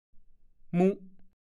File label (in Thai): "มุ้", ภาษาโคราช; เอนหัวนอน ฟุบหัวลงเมื่อเวลาง่วงนอน (มักใช้แก่เด็ก)